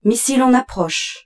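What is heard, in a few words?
French, incoming missile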